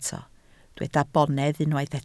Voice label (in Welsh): "bonedd", Welsh